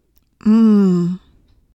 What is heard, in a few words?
alphasounds m